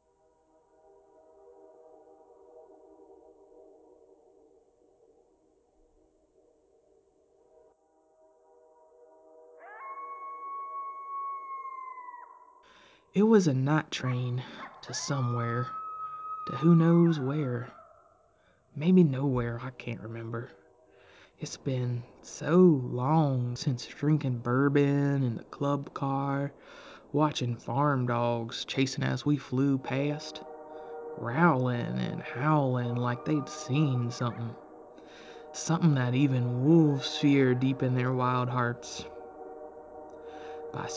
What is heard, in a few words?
southern accent, cowpoke twang, spooky, Erotic audio; Halloween; Night Train